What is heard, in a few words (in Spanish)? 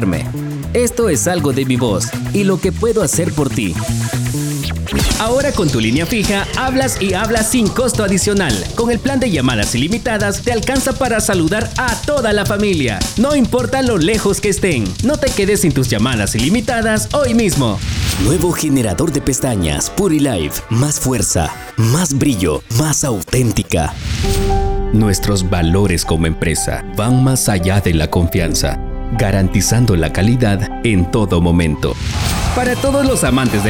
voz marketing